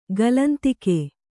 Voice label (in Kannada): "ಕಾಲಾಂತಕ", kannada